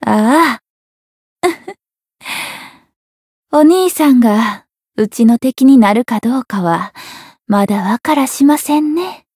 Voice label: Voice story; hoozuki; jp